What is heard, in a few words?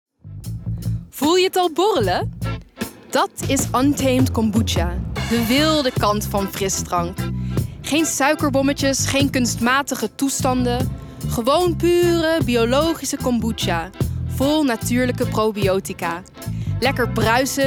AD NL; Untamed Kombucha